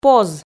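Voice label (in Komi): "поз", Komi, female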